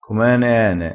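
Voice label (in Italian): "com ene ene", dialettale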